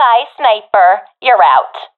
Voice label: sees sniper killed, professional fem; Counter Strike Model Pack; CS:GO

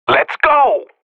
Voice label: radio bot go; Source Model Packs; Counter Strike Model Pack; CS2; professional

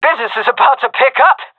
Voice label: attacking, seal fem; vo; Counter Strike Model Pack; CS:GO

